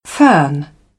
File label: "fern", British; ɝː - ɜː; English